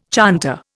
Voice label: "chanter", Indian